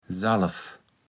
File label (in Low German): "Salv", Platt